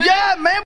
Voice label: "yeah man", voice; ooh ahh